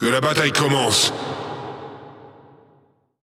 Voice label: Speech Lets Battle, FR; World Of Warships